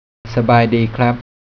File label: "dee kap", Thai; travel